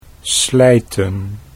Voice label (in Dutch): "slijten", Dutch